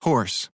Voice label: NORMAL HORSE, American; American IPA phonic